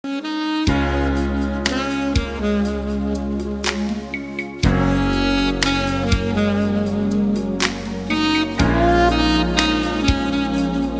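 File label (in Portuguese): noivos fem, Telemensagens; homen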